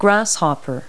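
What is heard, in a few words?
"grasshopper", English word; Multi-Lingual Talking Picture Dictionary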